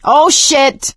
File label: reaction negative, survivor voice; source engine; left 4 dead 2; producer